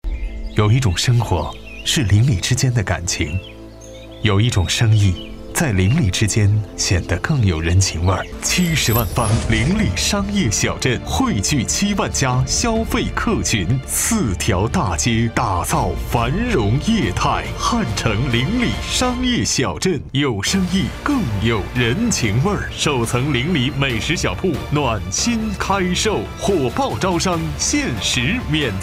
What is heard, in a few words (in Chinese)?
男 房产 走心 地产